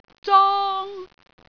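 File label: "zong1", Cantonese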